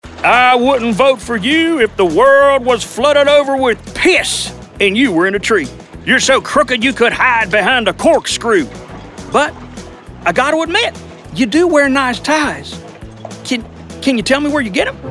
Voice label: character